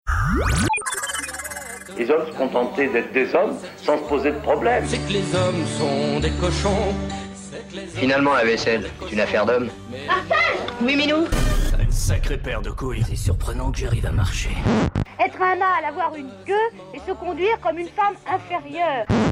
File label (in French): jingle hommes; nuit du genre